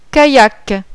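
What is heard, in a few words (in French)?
"faire du canoe-kayak", français; SPORT; activité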